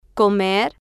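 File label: "comer", Spanish, female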